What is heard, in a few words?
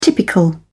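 "typical", British English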